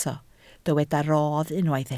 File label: "rodd", Welsh